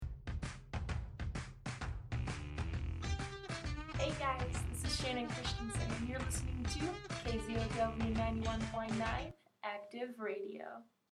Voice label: Promo